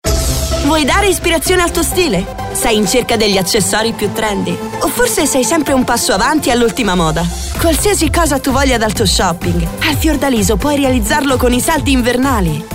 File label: Spot